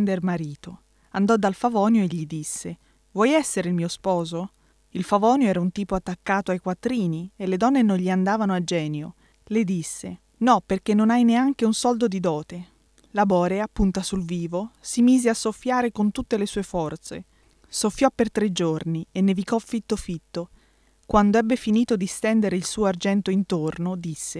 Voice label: tale allegro style